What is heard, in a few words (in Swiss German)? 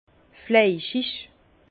"fleischig", Bas Rhin; vin; charnu